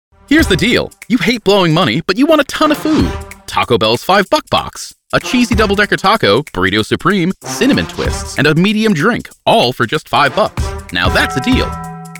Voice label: COMMERCIAL